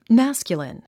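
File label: "masculine", 英単語 発音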